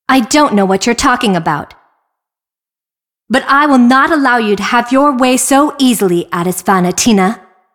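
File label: cinematic voice, Polish; lineage2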